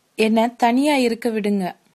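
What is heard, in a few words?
leave me alone, Tamil, female; என்ன தனியா இருக்க விடுங்க; enna thaniyaa irukka vidunga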